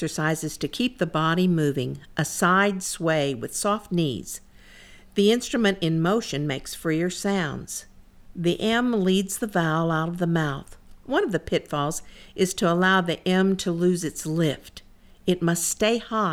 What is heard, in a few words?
Mid Low Range Explanation Moh Moh Moh Moh, warm ups